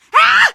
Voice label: fall short, teengirl; voice; source engine; left 4 dead 2; survivor